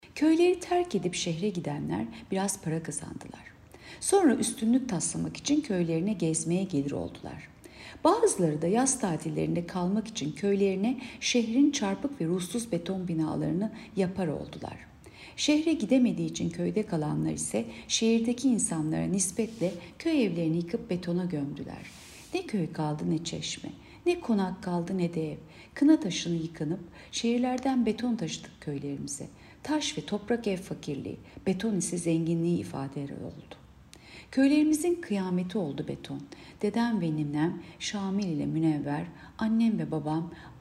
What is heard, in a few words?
turkce